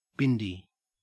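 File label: "bindi", Australian English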